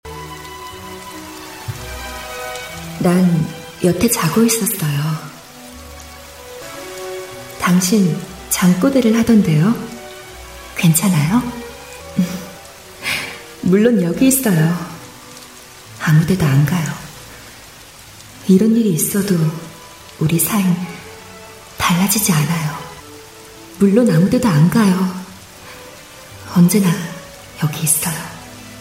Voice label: 연기 20대 여성 분위기 있고 감성적인 연기

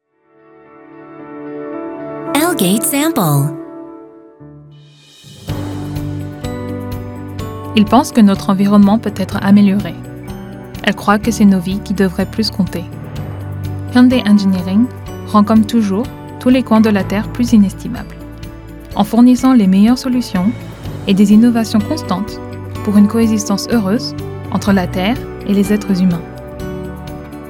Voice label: 프랑스 여 기본톤

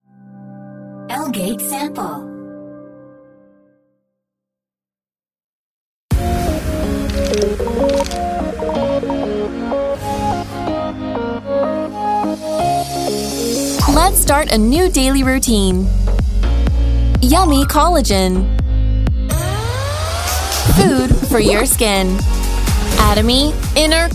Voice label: 영어 여 밝은톤; 애터미-이너콜라겐

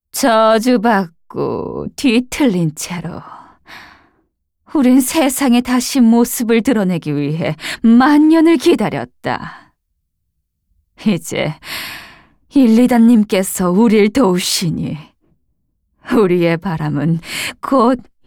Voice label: game 30대 광신도 사악한

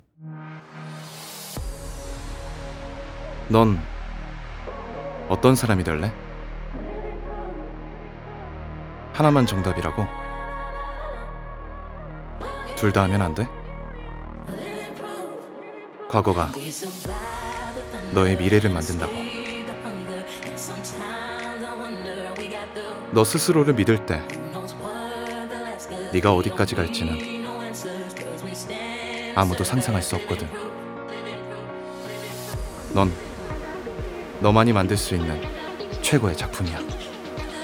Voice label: 광고 시크한 20대; 나이키

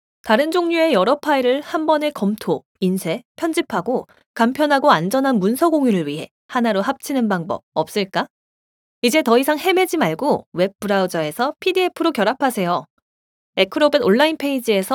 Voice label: 성우 아나운서톤

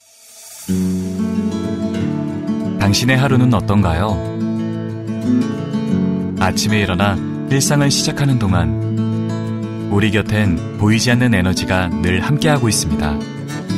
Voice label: 내레이션 자연스러운 젊은 기분 좋은 느낌; 한국에너지기술연구원

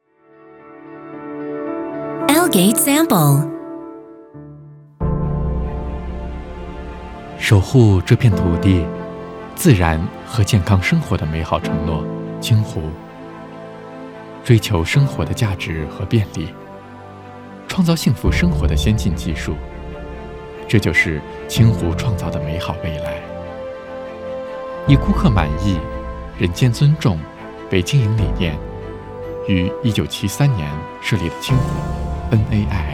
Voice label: 중국 남 차분한 톤

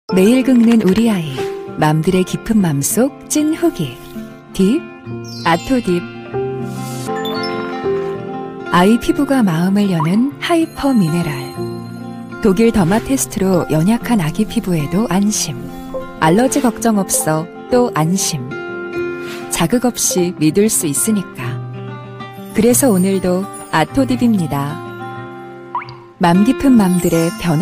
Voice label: 따뜻한 밝은 신뢰감; 아토딥

